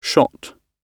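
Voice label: "shot", British English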